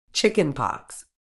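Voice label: "chickenpox", British English; ˈtʃɪk.ɪn.pɒks; CHICK-in-pocks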